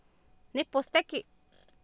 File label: "nihposteki" Nahuatl, Oapan, female; Oapan minimal pairs 2000